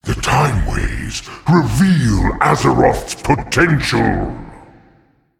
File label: Male Titan Play